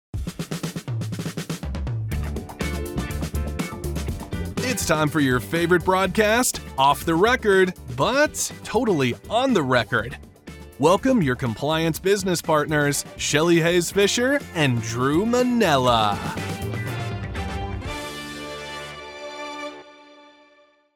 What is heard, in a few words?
Podcast Intro; Off The Record